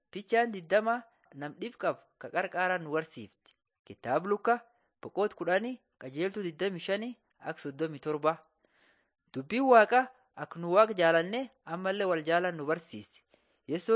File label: borana sakuye